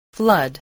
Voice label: "flood", spelling

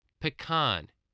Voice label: "pecan", English, voice

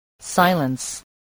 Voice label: "silence", voice spelling

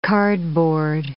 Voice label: "cardboard", English

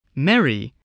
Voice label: "merry", voice, spelling

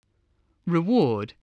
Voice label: "reward", English

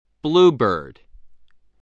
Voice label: "bluebird", spelling

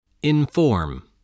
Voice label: "inform", spelling voice

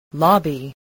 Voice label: "lobby", spelling

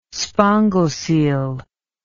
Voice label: "spongocoel", English

